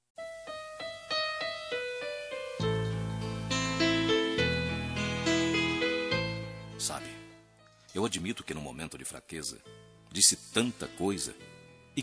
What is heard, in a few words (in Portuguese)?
ROM DESCULPA MASC; Desculpas; Telemensagens 2017